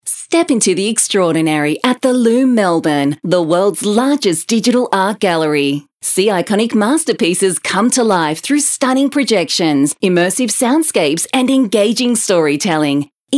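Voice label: Corporate Friendly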